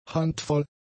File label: "handvoll", German; adjectives